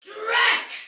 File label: Attack Normal Soldier Female, Battle Barks; Sinecure